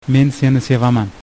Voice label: i love you, uzbek